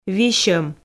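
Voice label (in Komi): "висьӧм", Komi, female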